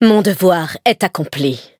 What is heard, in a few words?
victory, fr; cookie run